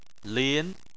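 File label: "lin", Chinese, second tone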